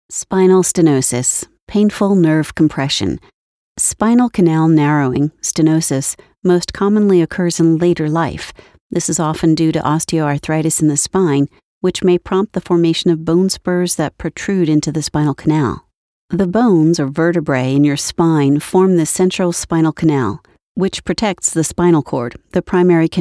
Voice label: english american medical narration